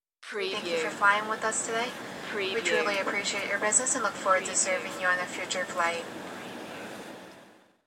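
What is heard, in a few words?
Stewards After Landing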